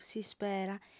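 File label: "s'ispera", female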